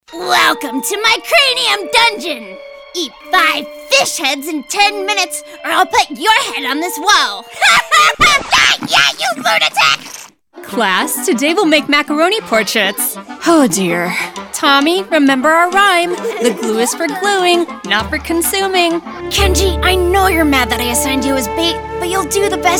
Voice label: Animation